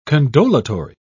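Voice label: "condolatory", British English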